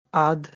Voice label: "Aad", Kurukh; She